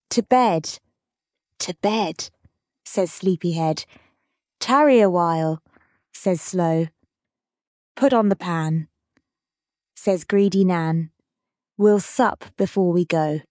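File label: To Bed To Bed, female, British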